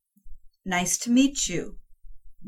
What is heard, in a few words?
eng greetings